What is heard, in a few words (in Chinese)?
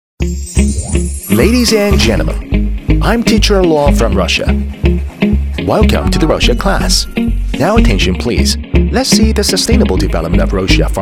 英男 英文飞碟说